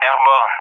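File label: "airborne", English speech; Allied Flight; DCS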